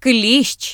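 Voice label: tick, Ukrainian; gcompris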